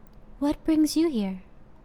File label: What Brings You Here, human female villager; customnpcs